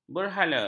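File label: "berhala", Malay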